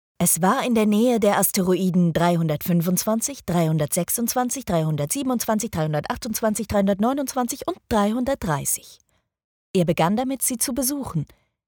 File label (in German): Hoerbuch